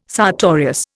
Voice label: "sartorius", Indian